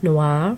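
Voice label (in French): "noirs" French adjective, masculine plural; Colors and Adjectives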